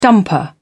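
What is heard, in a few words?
"dumper", British English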